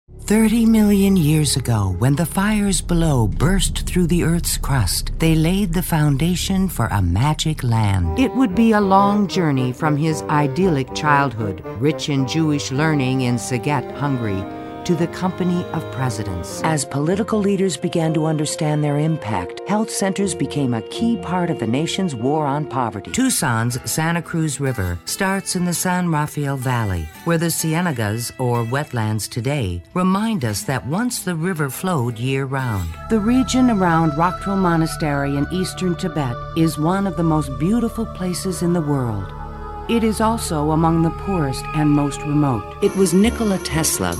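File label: English american female; dictor-sounds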